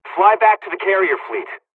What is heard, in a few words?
evac, dialogs turkish; War Thunder